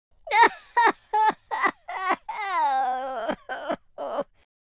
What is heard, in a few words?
sad, speech, Patronising